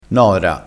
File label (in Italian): "nora", crespinese